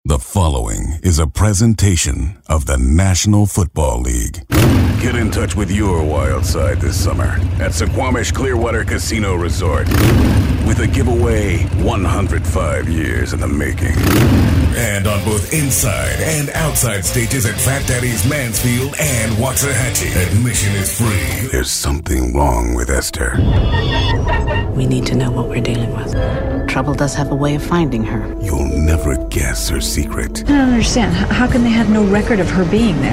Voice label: Raspy

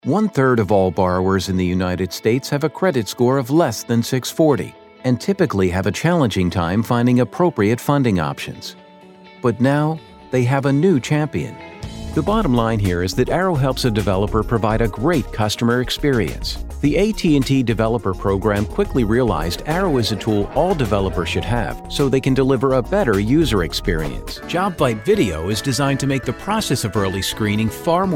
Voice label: Corporate

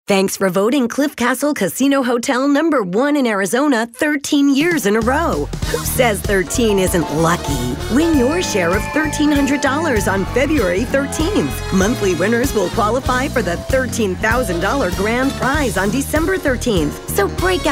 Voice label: Raspy

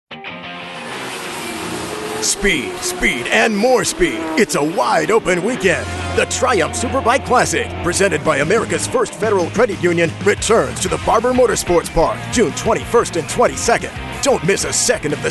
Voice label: High Energy

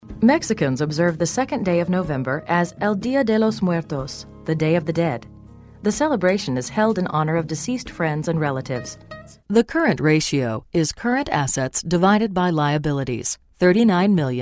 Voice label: Informative

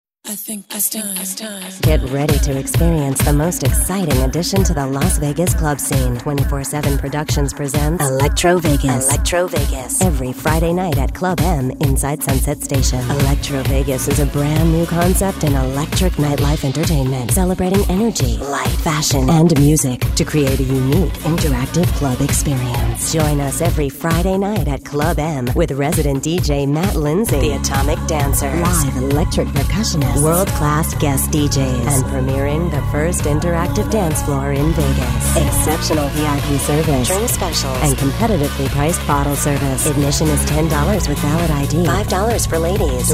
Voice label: Edgy

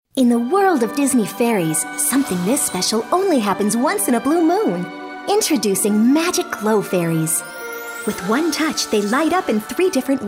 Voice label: Kid Friendly

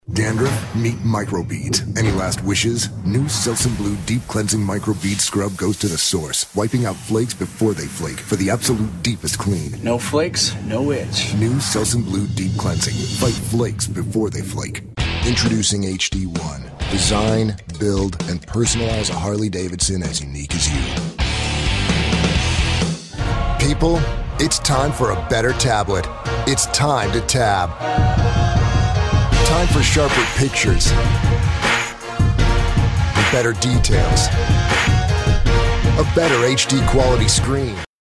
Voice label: Edgy

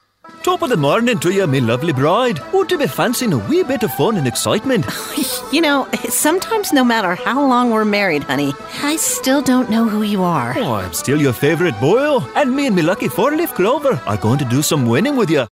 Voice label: accent Irish

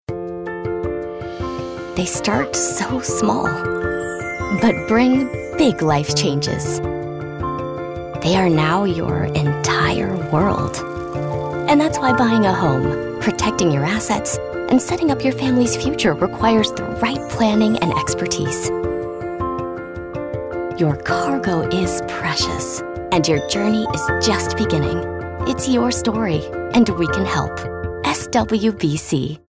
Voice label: Warm Caring

